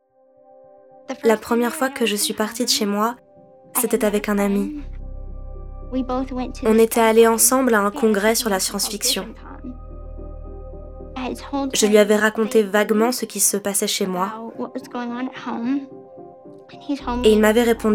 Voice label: VOICE OVER